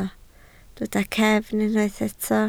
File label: "cefn", Welsh